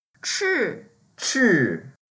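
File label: "chi4", Mandarin Chinese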